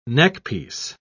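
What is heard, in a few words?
"neckpiece", British English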